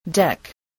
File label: "deck", English